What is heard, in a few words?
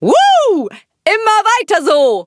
levelup de; cookie run